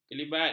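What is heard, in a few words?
"kelibat", Malay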